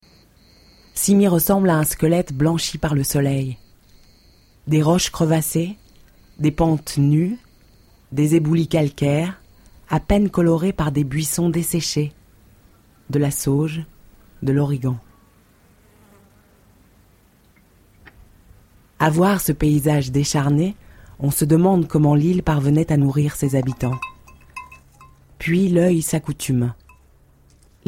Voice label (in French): FR F AUDIO-BOOK; Français